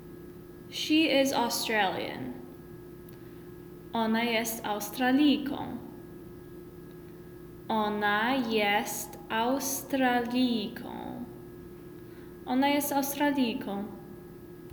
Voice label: Pol She is Australian

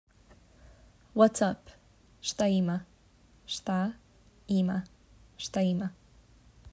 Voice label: Whats up, serbian

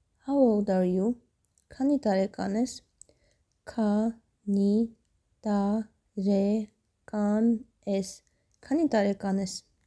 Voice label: How old are you Armenian Kani tarekan es

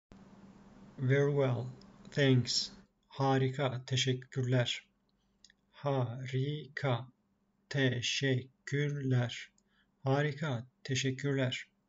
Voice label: very well, turkish; the talking turkish phrasebook

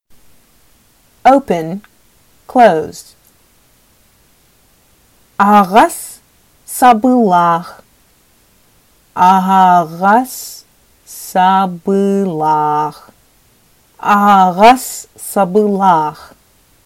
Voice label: Sakha Open closed

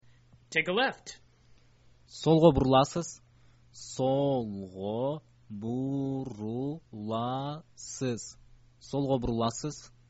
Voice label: take a left, kyrgyz

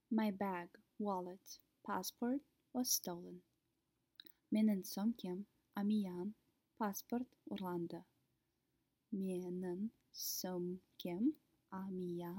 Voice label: Kazakh My bag wallet passport was stolen

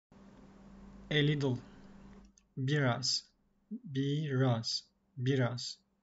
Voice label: a little, turkish; the talking turkish phrasebook

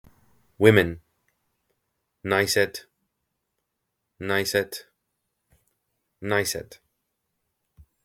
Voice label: Women, Estonian; the talking estonian phrasebook

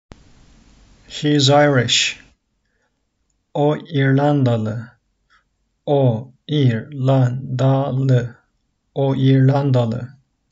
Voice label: he is Irish, Turkish; the talking turkish phrasebook

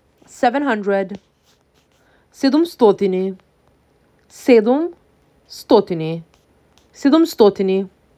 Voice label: MAC 6, macedonian phrasebook

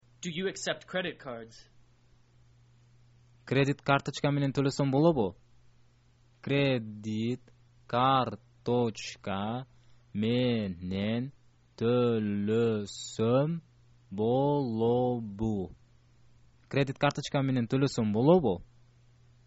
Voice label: do you accept cc, kyrgyz

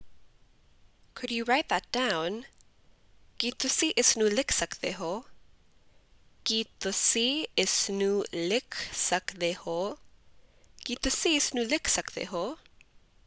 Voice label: Punjabi Could you write that down

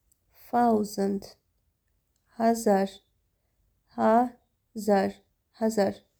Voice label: 1000 hazar, armenian

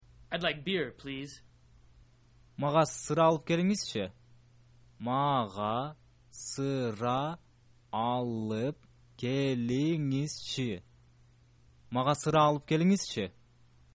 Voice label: id like a beer pls, kyrgyz

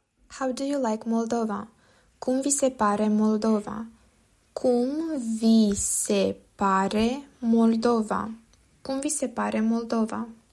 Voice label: How do you like Moldova, romanian

